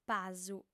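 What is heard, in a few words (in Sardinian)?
"pasu", female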